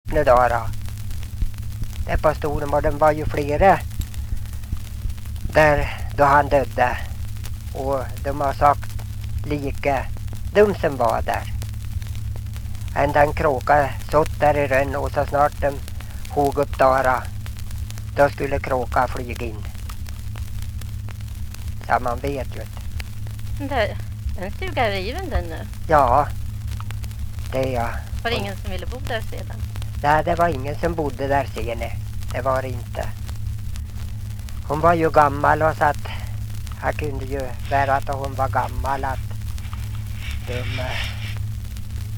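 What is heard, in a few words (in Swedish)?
Ljustorp Medelpad, Svenska sagor, dialekter; Folkminnen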